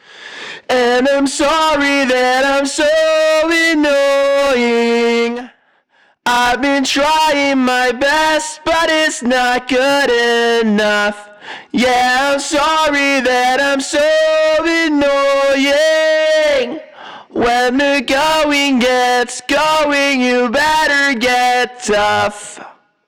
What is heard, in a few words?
Vocal Megaphone